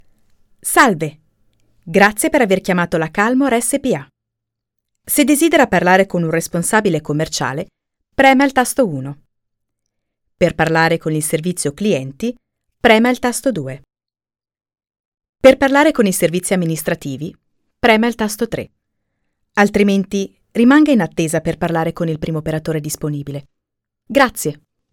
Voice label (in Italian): Segretria telefonica